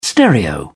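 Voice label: "stereo", British English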